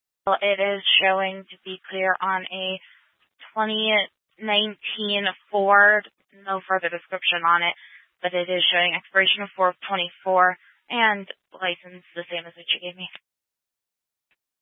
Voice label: Dispatch, Scanner audio recording

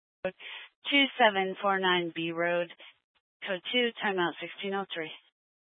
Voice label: Fire Department Dispatch, scanner; Grand Junction

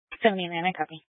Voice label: Police Department Dispatch, scanner

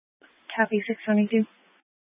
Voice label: Police Department Dispatch; Grand Junction

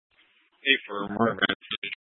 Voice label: Grand Junction Fire Department Dispatch

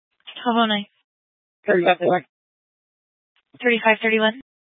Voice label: Police Dispatch, scanner; Police 1 Dispatch

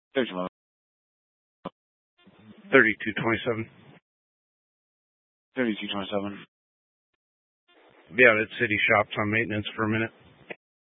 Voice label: Police Department Dispatch, scanner

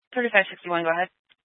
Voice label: Police Dispatch, scanner; Police 1 Dispatch

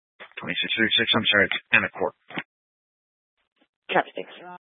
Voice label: Mesa County Sheriff Dispatch

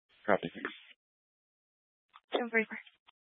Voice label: Fire Dispatch, scanner

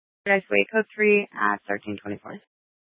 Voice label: Fire Department Dispatch

